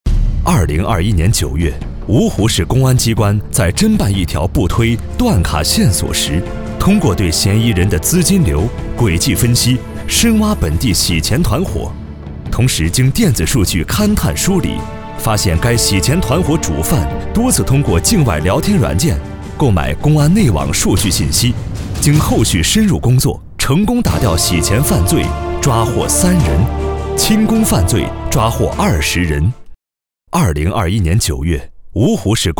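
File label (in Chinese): 男大音-警示-案件; 反腐; 无间道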